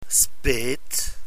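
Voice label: "speet", Dutch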